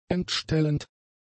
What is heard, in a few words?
"entstellend", German; adjectives